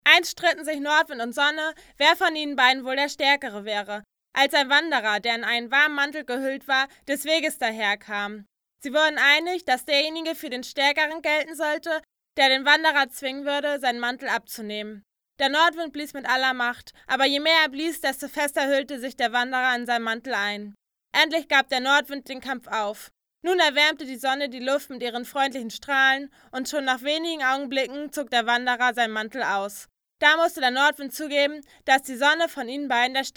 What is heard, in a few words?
female, 0 km/h, lombard